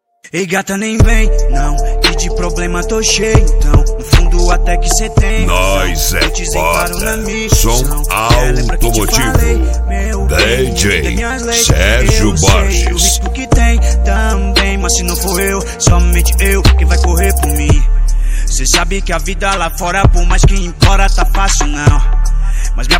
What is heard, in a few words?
rap; som automotivo